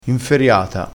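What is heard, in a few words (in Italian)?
"inferiata", vocabolario dialettale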